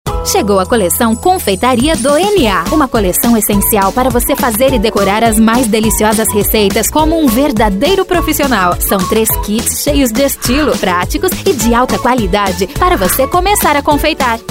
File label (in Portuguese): JOVEM VAREJO